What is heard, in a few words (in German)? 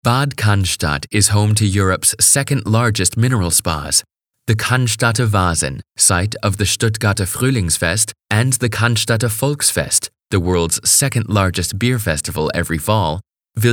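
American English, Kommentar Neutral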